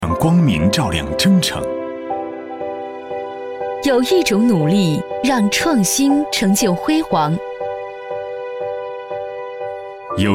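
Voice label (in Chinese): 女S112 国语 女声 广告-中文配音（男、女）正式平稳 积极向上 神秘性感 调性走心 亲切甜美; 华电中文配音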